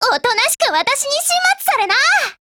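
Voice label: SKILL, Japanese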